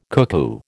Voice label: "cuckoo", American

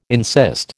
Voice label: "insist", American

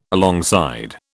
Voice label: "alongside", British

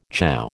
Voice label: "chow", American

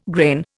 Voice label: "grain", Indian

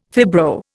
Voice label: "fibro", Indian

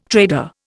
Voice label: "trader", Indian

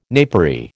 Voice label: "napery", American

